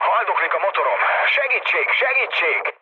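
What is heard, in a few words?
Hungarian damaged engine mood low, speech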